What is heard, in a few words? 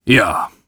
Warrior emotion agree